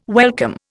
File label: "welcome", Indian